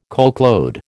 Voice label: "coachload", American